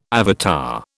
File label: "avatar", British